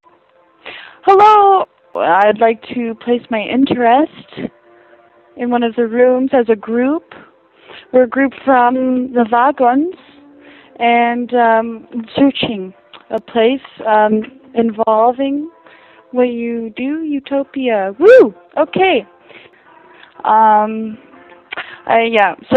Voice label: Voicemail